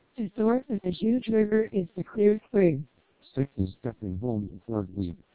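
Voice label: American speech, SNR=10dB, TWELP 480 bps vocoder; NCSE disabled and enabled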